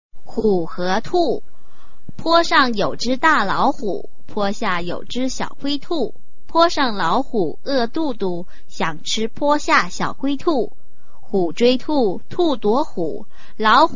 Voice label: chinese tongue twister